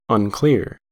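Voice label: "unclear", US English; pronunciations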